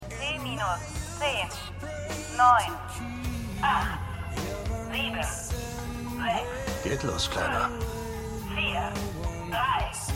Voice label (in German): Countdownsprecherin; For All Mankind